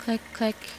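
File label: click click, English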